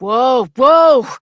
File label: Horse Stop Walk Adult; Duviri